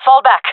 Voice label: request fallback, jungle fem; vo; Counter Strike Model Pack; CS:GO

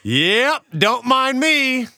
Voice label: taunt don't mind me; Counter Strike; CS:GO; taunts

